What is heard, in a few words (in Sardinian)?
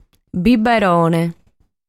"Biberone", sardu